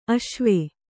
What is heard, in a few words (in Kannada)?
"ಆಶ್ವ", Kannada